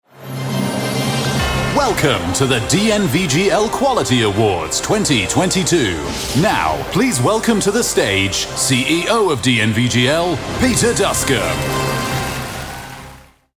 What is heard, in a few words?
british voiceover events